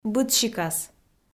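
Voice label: "быдсикас", Komi-Zyrian, female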